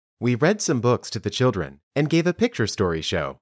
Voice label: Dictation